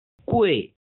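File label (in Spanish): "贵", chino mandarin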